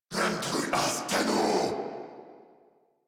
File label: Attack Corrupted Grineer Male